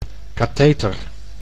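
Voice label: "catheter", Dutch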